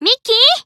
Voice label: Emo Call Name

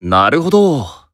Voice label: Emo Yes

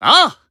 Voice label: Emo Yes

